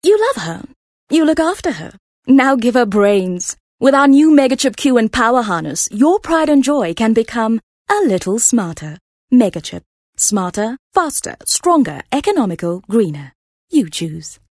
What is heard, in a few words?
Softsell, voice artist